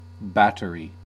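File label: "battery", American English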